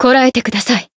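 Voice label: heal, Japanese; felmina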